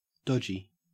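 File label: "dodgy", Australian English; pronunciations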